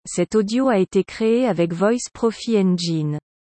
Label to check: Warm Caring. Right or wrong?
Wrong